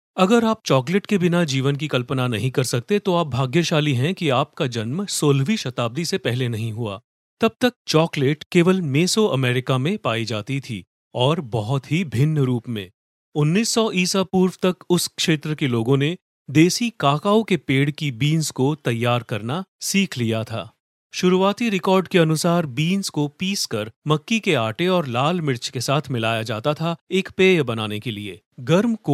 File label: hindi male